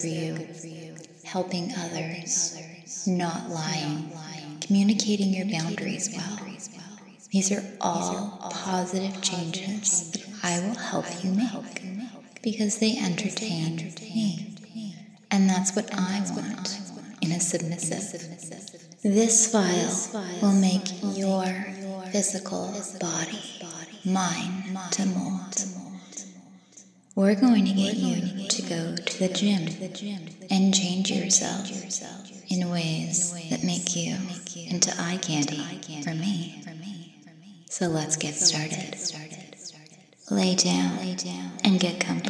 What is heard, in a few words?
Hypnosis with Echo; Boyfriend Submissive Training Hypnosis Session Two Exercise Control